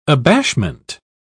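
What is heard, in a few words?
"abashment", British English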